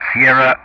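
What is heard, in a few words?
"Sierra", English; Ka-50; Alphabet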